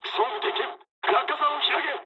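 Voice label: Japanese bailout mood low; War Thunder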